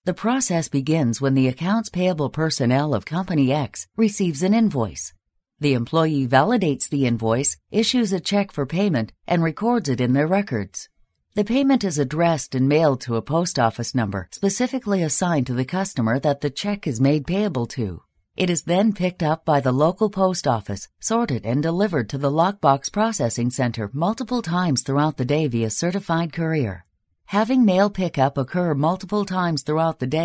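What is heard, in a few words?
Canadian English, female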